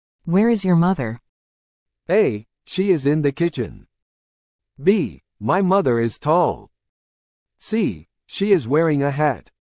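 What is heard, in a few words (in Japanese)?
ノーマル, リスニング; You will hear a question or statement and three responses spoken in English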